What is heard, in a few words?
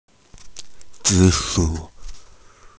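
"zi3 shu3", Mandarin Chinese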